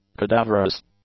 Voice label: "cadaverous", English; adjectives

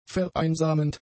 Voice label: "vereinsamend", German; adjectives

